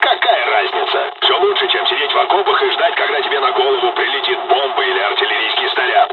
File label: dialog, dialogs russian; War Thunder